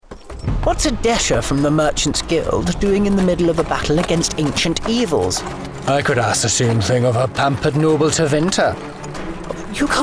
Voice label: Character